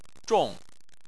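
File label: "zhong" Chinese, fourth tone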